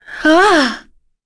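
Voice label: Vox Casting, English; Lorraine; kings raid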